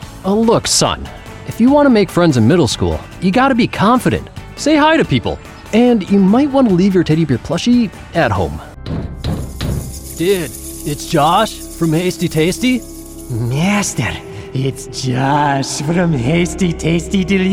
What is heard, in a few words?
Animation